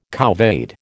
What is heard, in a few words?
"couvade", American